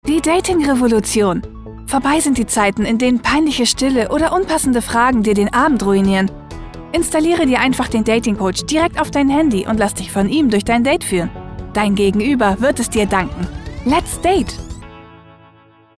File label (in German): Werbung